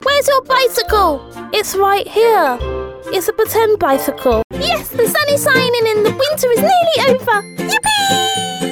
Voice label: female English animation